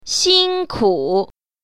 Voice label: "xin1 ku3", Mandarin Chinese; 辛苦